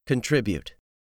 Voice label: "contribute", English; 3000 words